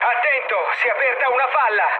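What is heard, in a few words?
Italian damaged water mood med, speech; War Thunder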